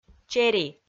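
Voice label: "чери", Komi-Zyrian, female; kpv audio dict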